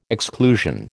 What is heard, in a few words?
"exclusion", American